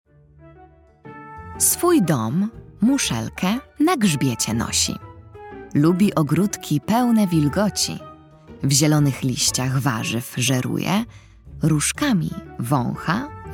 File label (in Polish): narracja; czasopismo mis; wiosna; zagadka